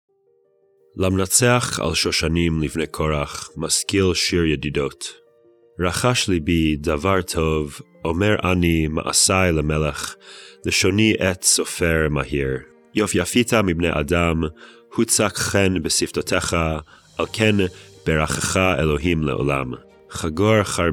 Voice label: poetry and prayer psalm hebrew